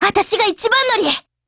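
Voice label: HELLO, Japanese; GF Alarm; KLIN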